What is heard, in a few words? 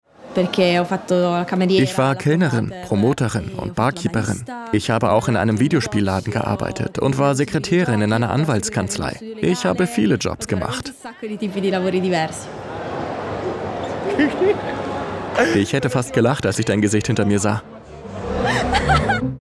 voiceover